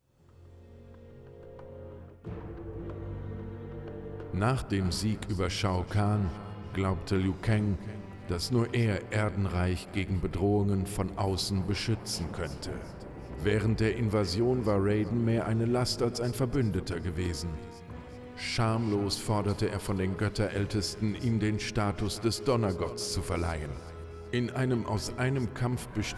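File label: music voice over endings German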